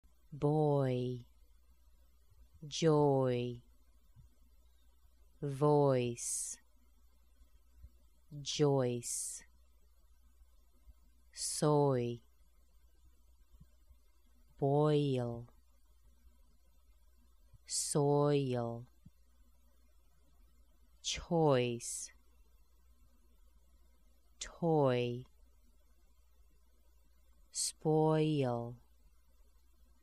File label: oy words slow, American accent